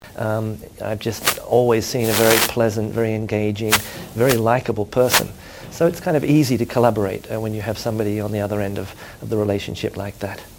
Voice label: mic spits original; Original audio with microphone noise hits